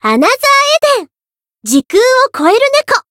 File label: voice jp; melody